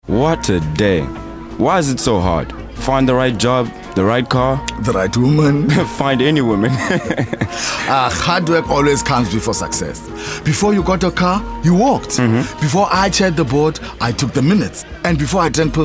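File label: english South African male commercial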